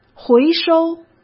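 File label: "回收", Mandarin Chinese; chinese-flashcards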